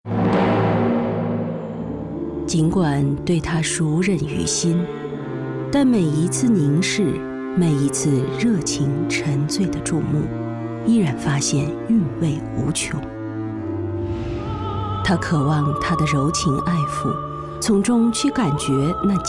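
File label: China, female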